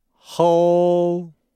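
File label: "hou", Chinese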